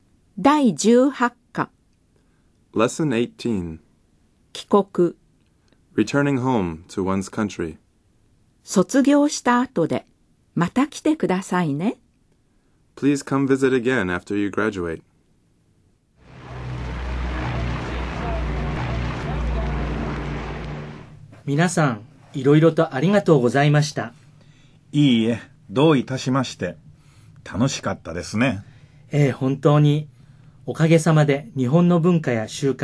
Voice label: Dialog